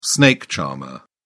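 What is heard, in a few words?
"snake charmer", British English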